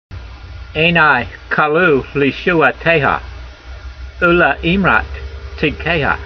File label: voice, hebrew language; Psalm 119:123; aynai kaloo leeshoo'a'teyha, oo'le eemrat tseedkeyha